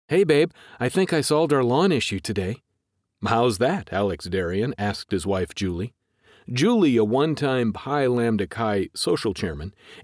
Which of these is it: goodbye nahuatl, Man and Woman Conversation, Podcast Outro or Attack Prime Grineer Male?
Man and Woman Conversation